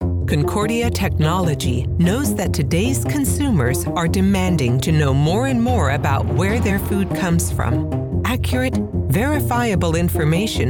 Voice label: Corporate Industrial Narration